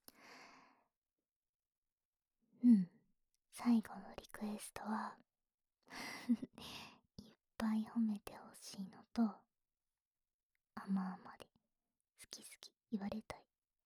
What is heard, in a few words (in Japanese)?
添い寝ささやき, ASMR; 全肯定褒め褒め&好き好き連呼